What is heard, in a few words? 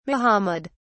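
"mohammed", British English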